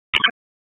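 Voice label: Police Spec Ops Tac, radio; Raleigh; wake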